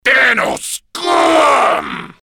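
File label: Enemy Tenno Scum, English; Grineer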